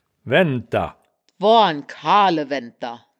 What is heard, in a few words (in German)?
"waenndter", wittlich